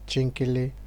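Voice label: "chingum", Nigerian Pidgin, male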